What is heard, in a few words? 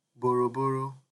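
"borrow borrow", Nigerian Pidgin, male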